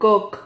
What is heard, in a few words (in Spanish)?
"cok", Silabas